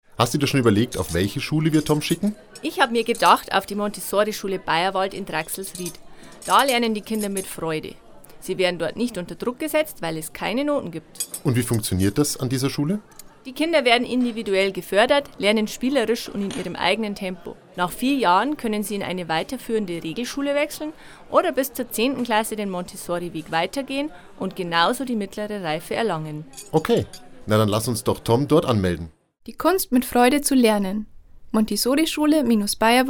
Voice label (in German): Werbung Montessorischule